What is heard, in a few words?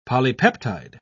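"polypeptide", British English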